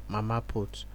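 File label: "mama put", Nigerian Pidgin, male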